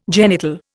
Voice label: "genital", Indian

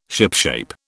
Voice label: "shipshape", British

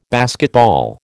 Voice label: "basketball", American

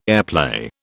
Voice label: "airplay", British

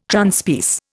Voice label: "Transpierce", Indian

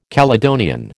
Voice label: "Caledonian", American

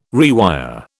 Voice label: "rewire", British

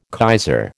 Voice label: "kaiser", American

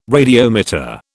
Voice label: "radiometer", British

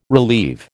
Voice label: "relieve", American

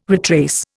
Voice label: "retrace", Indian

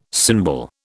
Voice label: "symbol", British

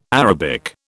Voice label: "Arabic", British

